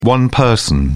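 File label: "one-person", English, British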